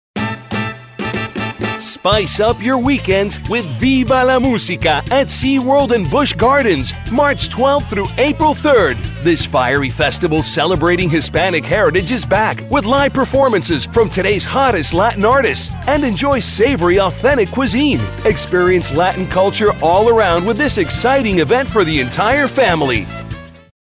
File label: animated friendly genuine